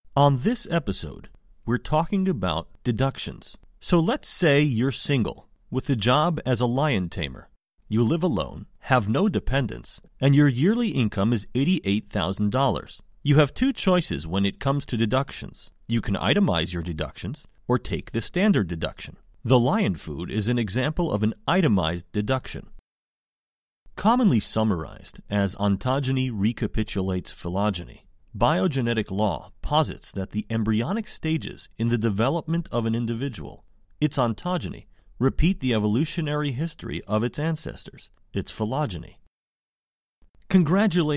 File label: English US M eLearning, american; voice over